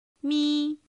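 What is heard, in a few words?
"mī", Chinese; pinyin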